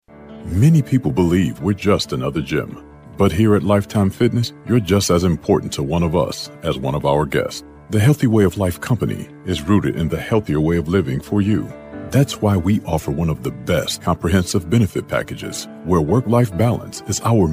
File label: english american explainer videos